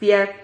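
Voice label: "fiad", Spanish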